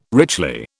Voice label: "richly", British

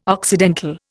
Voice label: "occidental", Indian

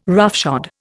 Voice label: "roughshod", Indian

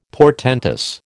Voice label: "portentous", American

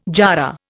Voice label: "jarrah", Indian

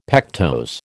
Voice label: "pectose", American

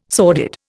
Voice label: "sordid", Indian

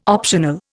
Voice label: "optional", Indian

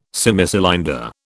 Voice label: "Semicylinder", British

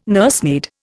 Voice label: "nursemaid", Indian

